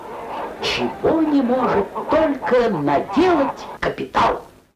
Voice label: Animated Soviet Propaganda; American Imperialist The Millionaire; ruidos